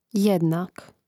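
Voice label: "jednak", Croatian; mreznik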